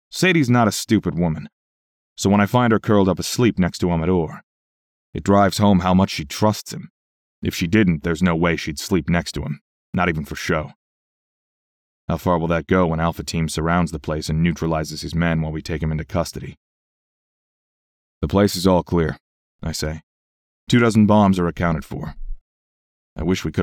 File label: American and Latino Accent, narrators